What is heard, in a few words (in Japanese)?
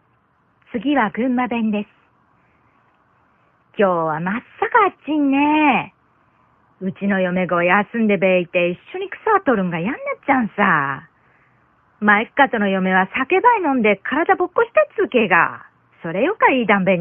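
方言, 群馬弁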